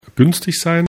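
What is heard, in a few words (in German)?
"günstig", German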